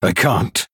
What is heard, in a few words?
Male Human ERROR GENERIC